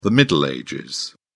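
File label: "middle ages", British English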